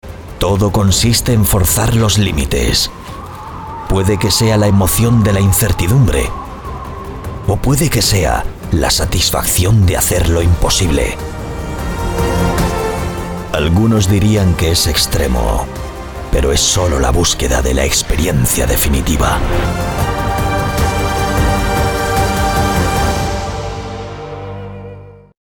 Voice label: male spanish castilian commercial; gore-tex

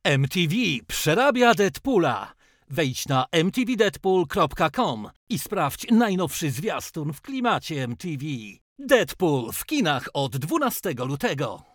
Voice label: male polish commercial; mtv deadpool

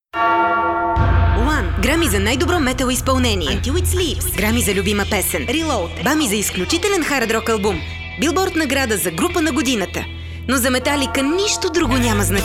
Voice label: female bulgarian radio promo compilation

